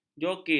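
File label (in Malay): "jokir", Malay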